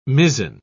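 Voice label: "mizzen", British English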